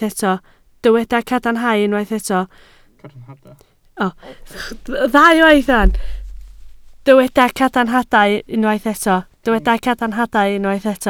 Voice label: "cadarnhadau", Welsh